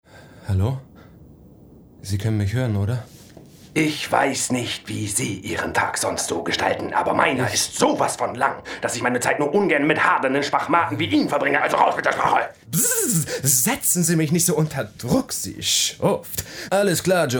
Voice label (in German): Haltungen Chargen Dialekte